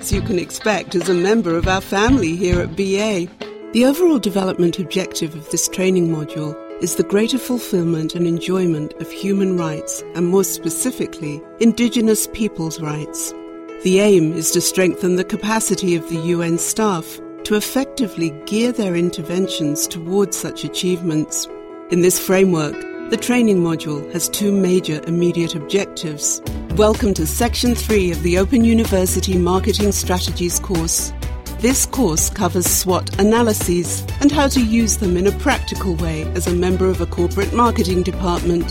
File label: E-Learning British